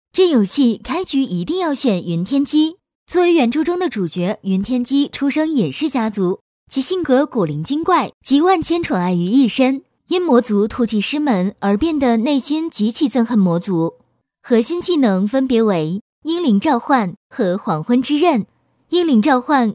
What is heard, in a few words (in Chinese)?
女 信息流 游戏解说 御姐, 国语配音; 云天机